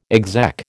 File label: "exec", American